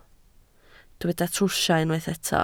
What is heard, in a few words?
"trwsio", Welsh